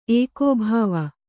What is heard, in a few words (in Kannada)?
"ಏಕೋಭಾವ", Kannada